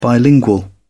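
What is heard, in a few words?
"bilingual", English, uk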